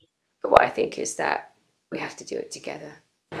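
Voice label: Voice Acting Spanish Female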